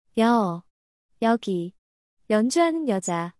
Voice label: speech "ye", korean